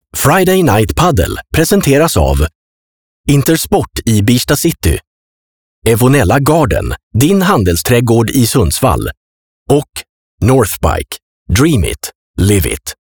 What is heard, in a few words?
Sponsor Message